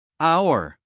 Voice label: "hour" US English, male; everyone-can-use-english

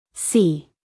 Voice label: "see", US female; everyone-can-use-english

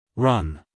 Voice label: "run", us male

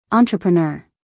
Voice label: "entrepreneur" US English, female; everyone-can-use-english

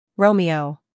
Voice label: "Romeo" us

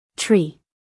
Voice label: "tree", us female; everyone-can-use-english

